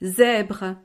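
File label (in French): "zebre", French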